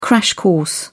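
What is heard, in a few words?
"crash course", English, British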